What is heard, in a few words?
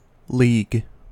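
"league", American English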